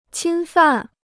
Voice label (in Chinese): "侵犯", Chinese